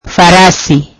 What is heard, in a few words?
swahili horse